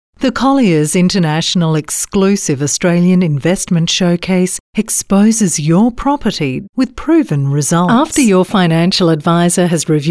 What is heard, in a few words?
Corporate